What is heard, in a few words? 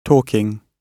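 "talking", British English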